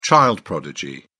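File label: "child prodigy", British English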